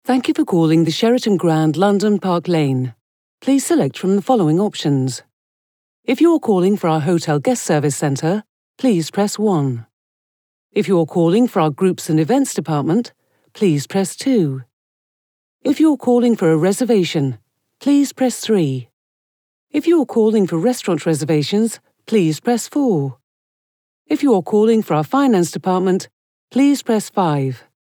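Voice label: British, telephony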